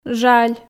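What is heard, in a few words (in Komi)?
"жаль", Komi, female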